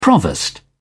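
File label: "provost", British English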